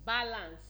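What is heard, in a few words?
"balance", Nigerian Pidgin, female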